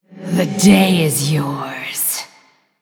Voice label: Female Blood Elf Concede

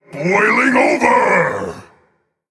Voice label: Male Elemental Attack

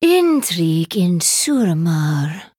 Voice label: Female Draenei Trigger

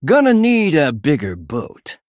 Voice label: Male Human Play

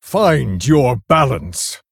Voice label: Male Driad Attack

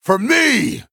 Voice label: Male Human Attack

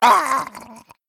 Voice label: Male Murloc Death

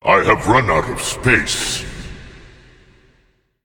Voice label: Male Demon Error Full Minions

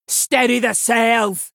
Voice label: Female Human Attack

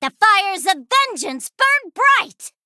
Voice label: Female Gnome Play

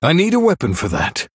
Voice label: Male Human ERROR NEED WEAPON

